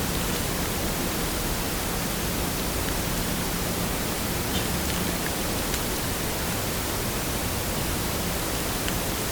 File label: Female Whisper